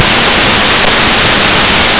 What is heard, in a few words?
sullust, voices male; Суллустианин